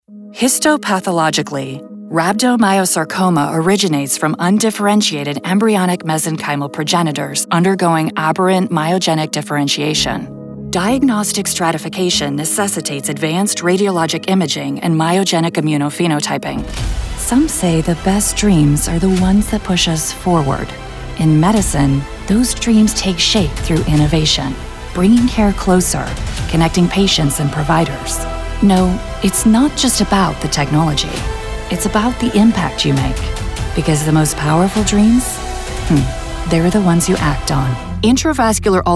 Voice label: medical narration; SF/LA-based Voice Actor; background in nursing and higher education